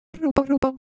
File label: Ewe Shape, ideophones